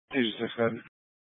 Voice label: Fire Department Dispatch; Grand Junction